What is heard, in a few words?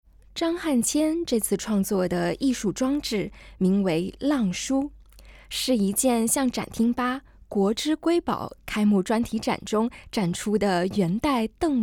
Putonghua, audio-guide